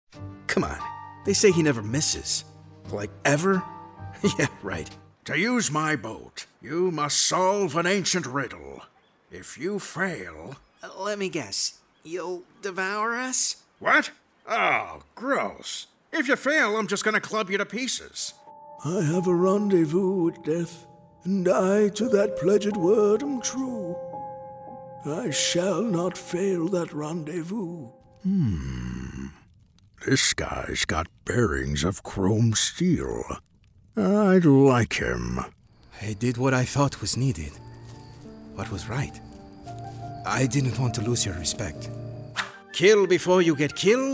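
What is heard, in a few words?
animation men, Voice Over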